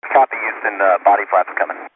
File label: NASA body flaps coming, space shuttle communications; VOCALS and SPOKEN WORD